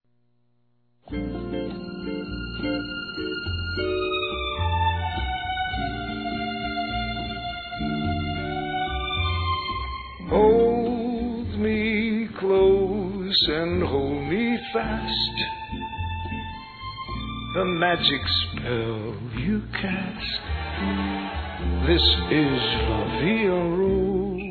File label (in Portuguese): Suave Feminino, Telemensagens Românticas